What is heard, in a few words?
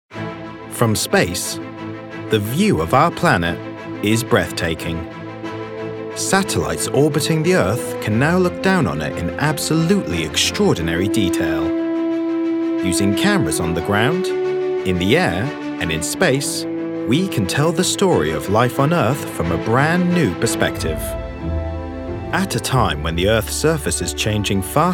Documentary; EarthFromSpace